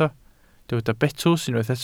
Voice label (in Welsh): "betws", Welsh